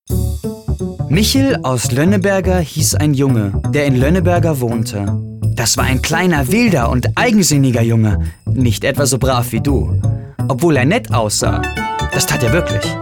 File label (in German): Lebendig; Fremdtake